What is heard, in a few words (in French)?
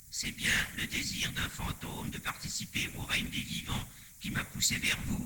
Chuch, Voix Chuchotee